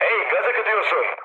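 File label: damaged water, mood med, Turkish; War Thunder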